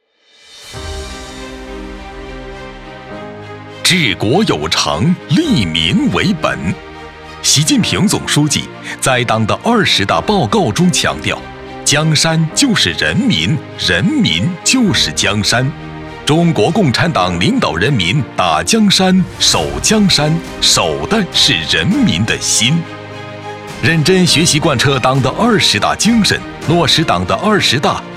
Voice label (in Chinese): 汇报片司法为民大气力度激昂, 男配音, 青年（18-35）, 普通话